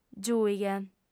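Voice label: "giùighe", Sardinian, female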